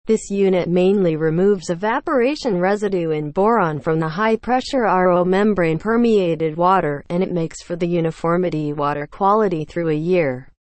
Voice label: english, seawater desalination; watercompany